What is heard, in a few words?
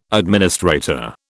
"administrator", British